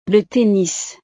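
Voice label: "le tennis", French; deportes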